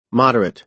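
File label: "moderate", American English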